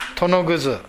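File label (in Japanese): "tonoguzu", 南山形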